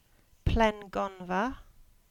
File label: Cornish; Battery Square